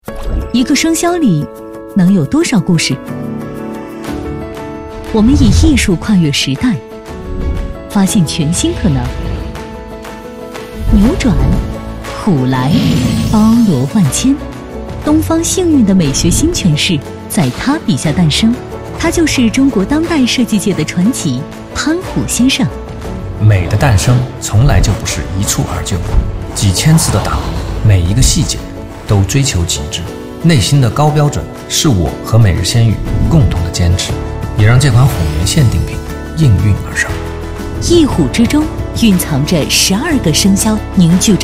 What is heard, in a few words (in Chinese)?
广告类; 每日鲜语